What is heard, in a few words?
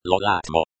"lo latmo", Lojban